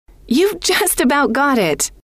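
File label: you've just about got it, female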